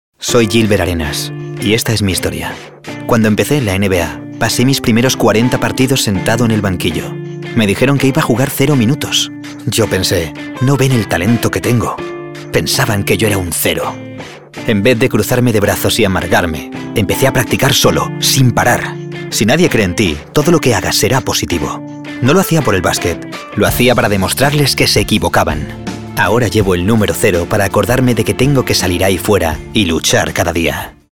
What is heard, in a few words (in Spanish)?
Masculino; Sonoarte